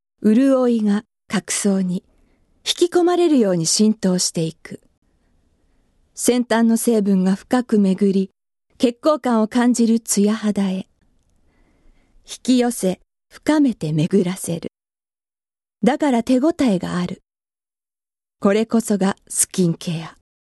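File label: narration, female voice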